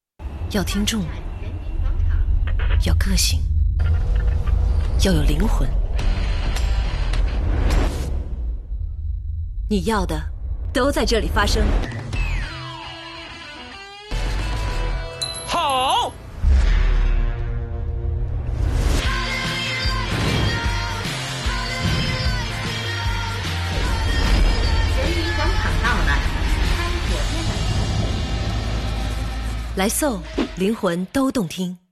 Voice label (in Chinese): 酷帅; soul播放器